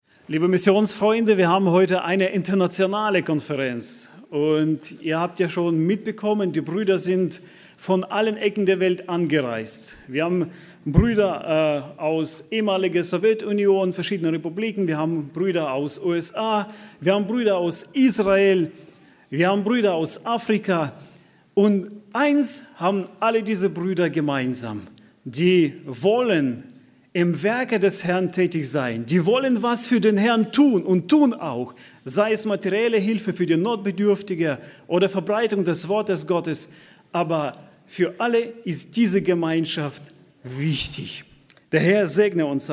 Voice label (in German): Ansage